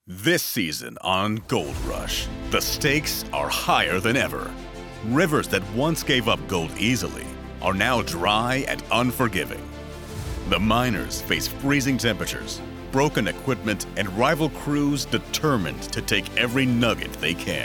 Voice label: Promo; Gold Rush